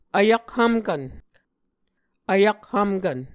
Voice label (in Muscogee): "vyakhvmkvn", Muscogee